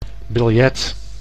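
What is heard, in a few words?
"biljet", Dutch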